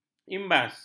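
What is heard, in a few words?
"imbas", Malay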